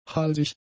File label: "halsig", German; adjectives